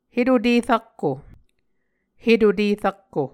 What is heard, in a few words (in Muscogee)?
"hetute rakko", Muscogee